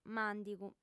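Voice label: "màndigu", female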